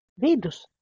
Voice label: "vidus", Latvian

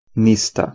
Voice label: "nista", Proto Germanic

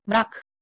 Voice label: "mrak", Bosnian Croatian Serbian Slovenian

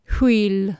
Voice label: "hwil", Anglo Saxon

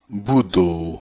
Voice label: "budo", Proto-Germanic

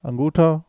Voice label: "anguuthaa", Siraiki

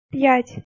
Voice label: "pjatj", Ukrainian

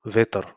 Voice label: "vetar", Macedonian

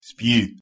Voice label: "spew", Scots

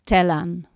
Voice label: "tellan", Anglo Saxon

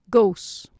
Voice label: "gos", Anglo Saxon

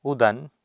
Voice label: "udan", Sanskrit

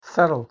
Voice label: "thirl", Scots

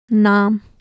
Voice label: "naam", Urdu

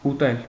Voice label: "utel", Armenian

